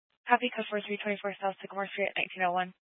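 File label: sheriff dispatch radio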